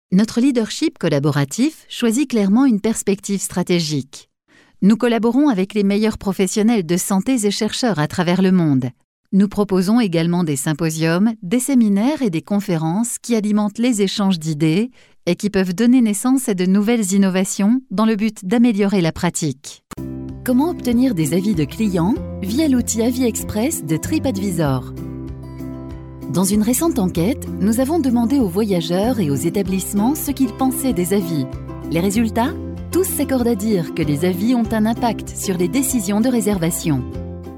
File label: french female elearning